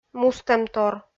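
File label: "мустӧмтор", Komi, female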